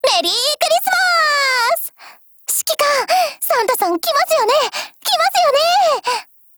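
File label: Japanese; M14Mod; CHRISTMAS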